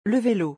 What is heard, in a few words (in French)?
"le velo", francais